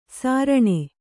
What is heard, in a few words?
"ಸಾರಣೆ", Kannada